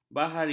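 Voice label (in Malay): "bahari", Malay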